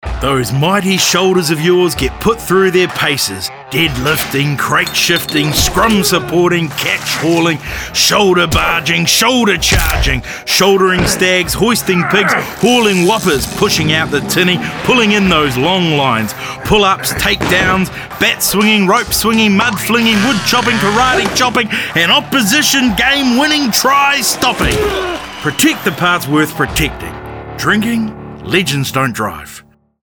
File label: radio ad; Legends; Shoulders